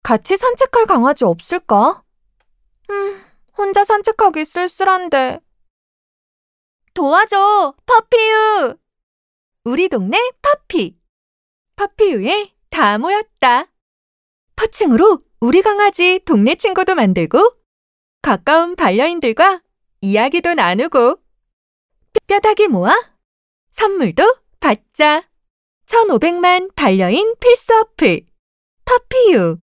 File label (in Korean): 밝음